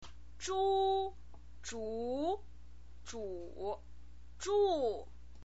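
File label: "zhu", Mandarin Chinese pinyin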